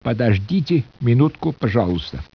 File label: speech russian